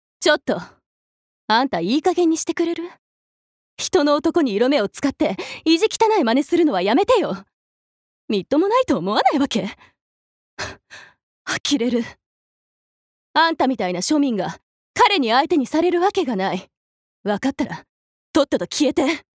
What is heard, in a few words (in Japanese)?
外画